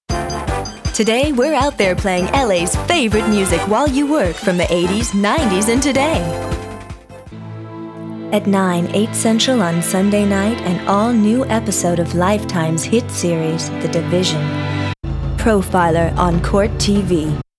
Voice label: Promos